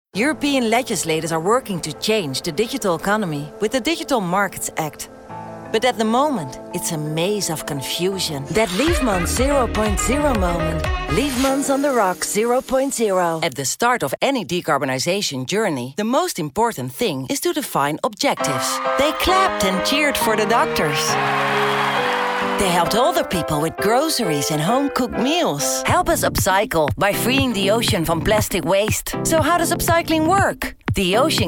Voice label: english allround